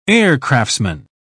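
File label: "aircraftsman", British English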